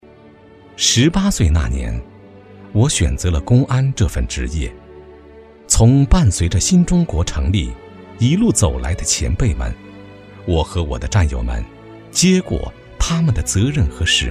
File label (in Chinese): 男 旁白; 选择公安